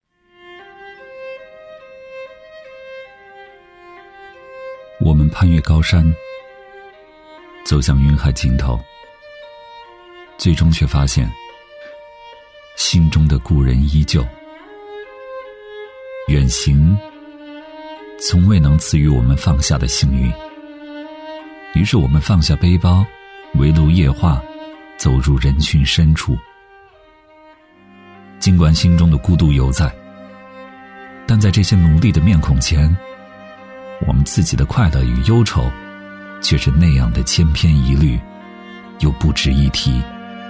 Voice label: 男 旁白走心 低沉抒情; 喜欢的文案; 磁性舒缓; 质感磁性

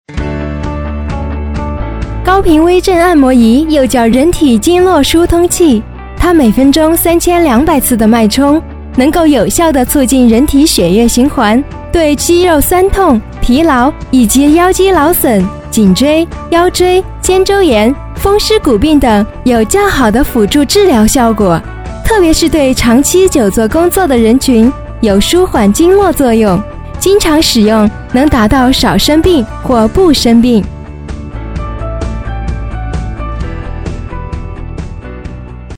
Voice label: 女, 广告配音, 科技感; 按摩仪; 甜美亲切

